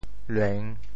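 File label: "lueng3", Teochew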